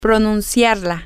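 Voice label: female, spanish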